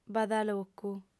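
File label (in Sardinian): "badalocu", female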